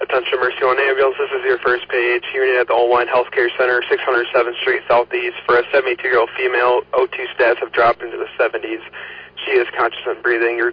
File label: Oelwein Ambulance, dispatch